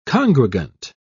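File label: "congregant", British English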